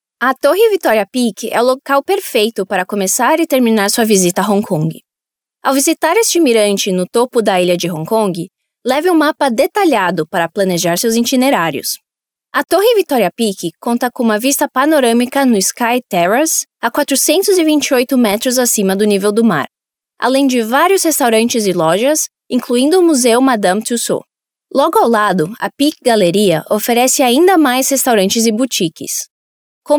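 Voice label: Brazilian female corporate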